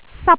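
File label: "sap1", Cantonese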